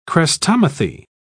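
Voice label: "chrestomathy", British English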